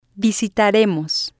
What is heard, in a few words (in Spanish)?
"visitaremos", spanish